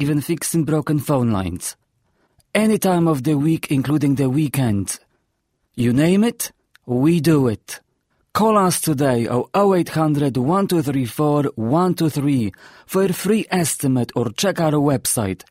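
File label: Polish, Accented English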